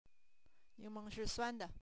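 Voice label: ning2meng2 sentence, Mandarin Chinese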